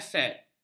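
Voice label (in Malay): "aset", Malay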